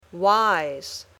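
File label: "wise", English word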